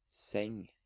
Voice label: "Seng", Norwegian